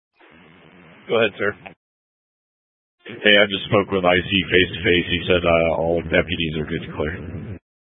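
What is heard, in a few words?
Incident Tactical 1, Scanner audio recording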